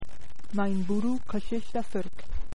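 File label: rain, Welsh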